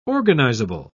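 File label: "organizable", British English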